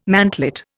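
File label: "mantlet", Indian English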